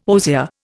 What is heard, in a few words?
"osier", Indian